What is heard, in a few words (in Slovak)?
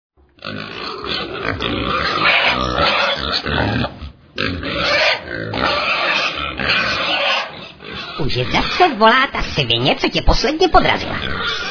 sms hlásky cz